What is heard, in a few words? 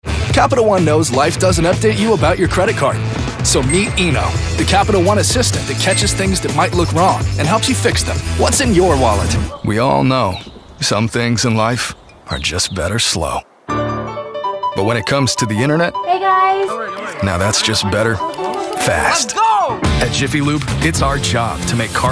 Commercial